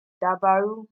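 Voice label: "dabaru", Nigerian Pidgin, female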